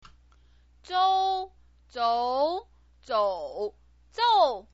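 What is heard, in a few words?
"zou", Mandarin Chinese pinyin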